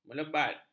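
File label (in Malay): "melebat", Malay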